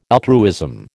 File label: "altruism", American